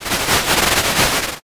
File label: whisper